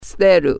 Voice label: "throwaway", Japanese